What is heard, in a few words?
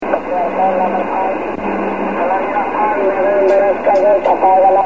28 ssb